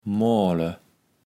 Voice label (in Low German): "moale", kaevels platt